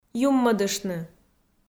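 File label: "юммӧдыштны", Komi, female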